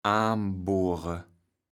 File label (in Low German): "aanbohre", platt